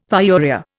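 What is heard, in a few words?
"pyuria", Indian English; Dictionary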